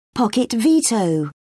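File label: "pocket-veto", British English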